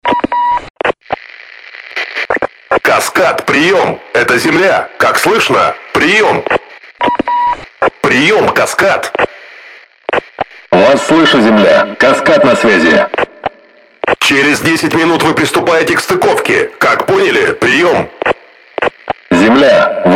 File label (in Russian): anons otkrytiya konczerta; anons otkrytiya konczerta dlya massovogo proslushivaniya dlya bolshoj auditorii